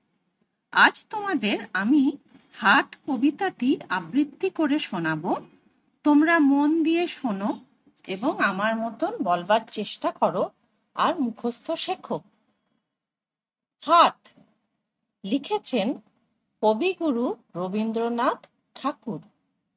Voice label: Bengali